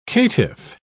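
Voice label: "caitiff", English, British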